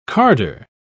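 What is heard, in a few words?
"carder", British English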